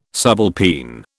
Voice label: "subalpine", British